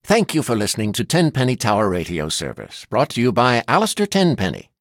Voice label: radio hello; Fallout3; Radio tenpenny